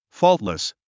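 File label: "faultless", English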